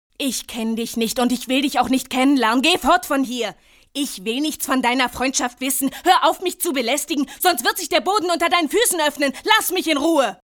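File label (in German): synchron, sprecher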